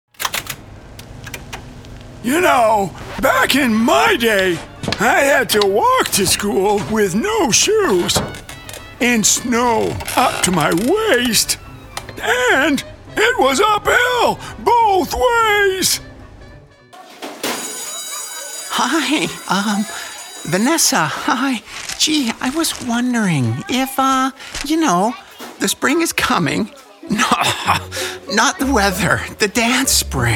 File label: Voiceovers Character Animation Video Games